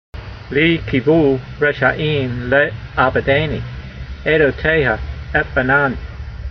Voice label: voice, hebrew language; Psalm 119:95; lee keevoo resha'eem le'abedaynee, aydoteyha etbonan